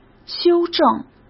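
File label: "修正", Mandarin; chinese flashcards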